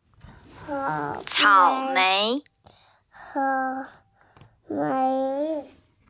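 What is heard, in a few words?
"cao3mei2", Mandarin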